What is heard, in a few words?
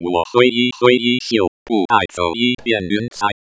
mandarin; espeak